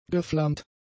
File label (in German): "geflammt", German; adjectives